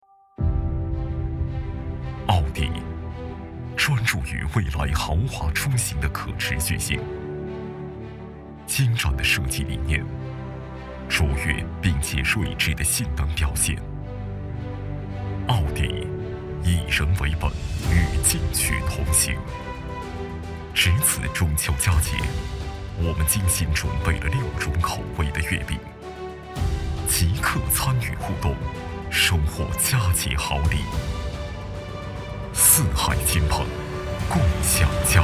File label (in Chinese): 男,汽车广告; 奥迪